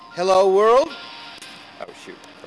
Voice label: softer